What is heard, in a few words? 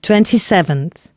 announcements, female